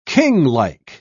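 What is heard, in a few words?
"kinglike", British English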